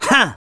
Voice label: Huh no way, Male; gangsters

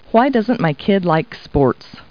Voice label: people, kid

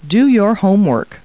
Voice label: people, kid

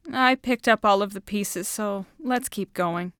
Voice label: pendant Break, english; Keepsake; crescent Coast Waterway

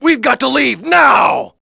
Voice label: panic, thug; SINDEMO; bank

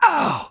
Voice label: wounded self, Voice English

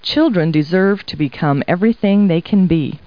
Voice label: people, kid

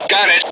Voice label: mp zonecap, M Voice English

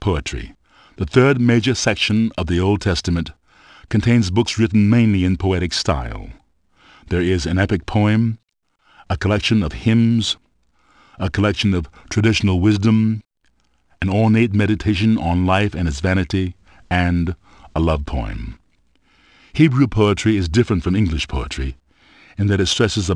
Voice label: poetry

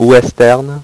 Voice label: "western", French; HyperSound™2

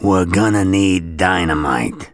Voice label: Not Enough Bombs, Male; gangsters; English

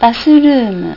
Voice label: "basu-rumu", Japanese; japanese language guide

